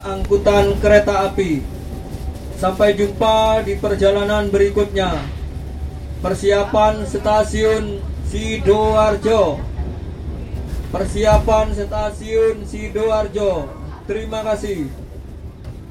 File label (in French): Indonésie annonce à la gare